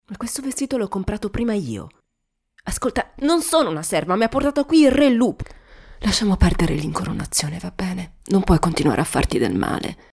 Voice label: locutora italiana italian voiceover